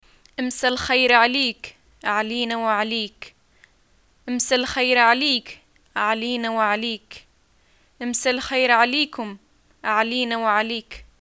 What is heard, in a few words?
greeting evening, arabic